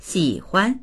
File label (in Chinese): "xi3huan", voices